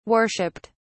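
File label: "worshiped", British English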